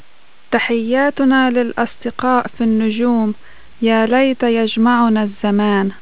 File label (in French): arabe; voyager